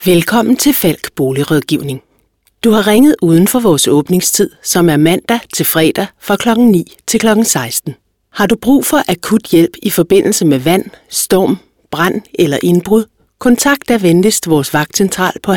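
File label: danish female ivr, voice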